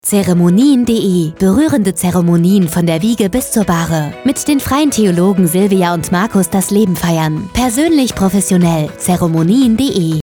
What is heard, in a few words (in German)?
Funkspot; Antenne Bayern